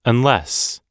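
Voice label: "unless", English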